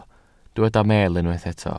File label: "meel", Welsh